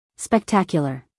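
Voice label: "spectacular", American English, female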